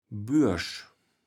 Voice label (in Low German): "buersch", kaevels platt